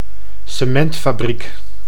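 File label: "cementfabriek", Dutch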